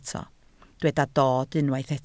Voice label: "dod", Welsh